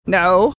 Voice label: disapproving no